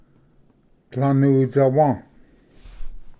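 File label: "tlanutsawa", Cherokee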